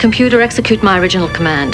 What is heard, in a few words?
computer execute, women; trek; tng